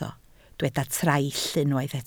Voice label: "traill", Welsh

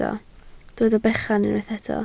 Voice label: "bechan", Welsh

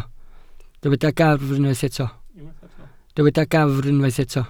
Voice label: "gafr", Welsh

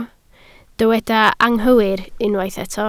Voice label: "anghywir", Welsh

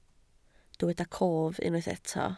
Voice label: "cof", Welsh

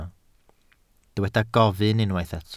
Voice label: "gofyn", Welsh

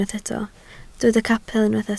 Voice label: "capel", Welsh

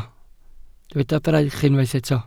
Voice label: "braich", Welsh

